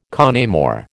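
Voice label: "con amore", American English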